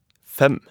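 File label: "fem", Norwegian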